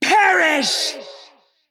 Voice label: Female Dragon Attack